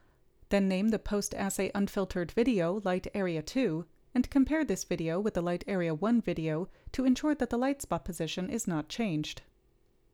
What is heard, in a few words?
Gong Voiceover